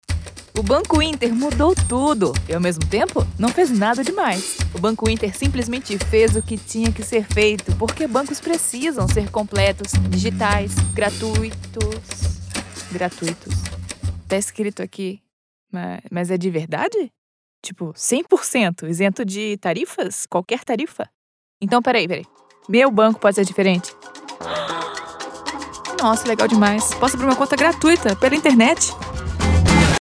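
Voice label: commercial radio ad funny conversational young sassy female brazilian portuguese; inter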